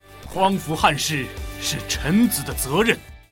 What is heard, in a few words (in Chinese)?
游戏 中年将士